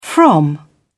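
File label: "from", British English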